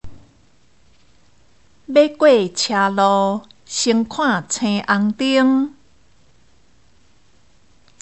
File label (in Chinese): (閩); 生活常規